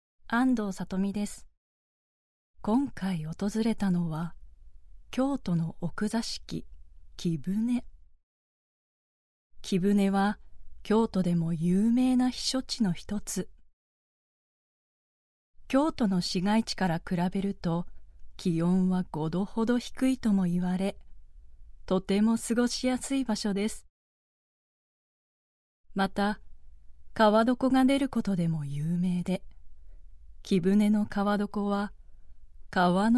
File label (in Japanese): ボイスA：旅番組のナレーション風